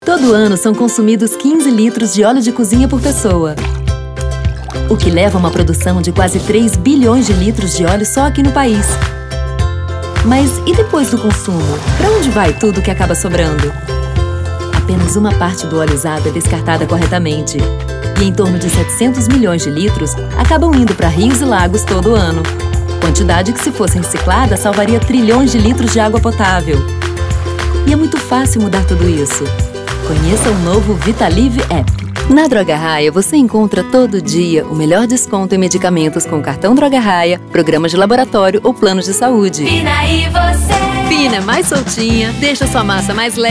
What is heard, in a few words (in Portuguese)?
Repertoório SIMPÁTICA AMIGÁVEL